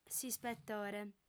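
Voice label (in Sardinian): "s'ispetore", female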